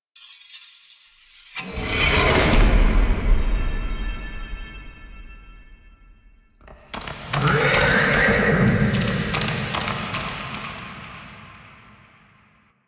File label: Grandmother Tale Story; Warframe; Naberus; Dagath